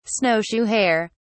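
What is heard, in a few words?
"snowshoe hare", British English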